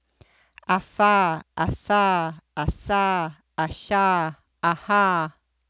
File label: a fricative a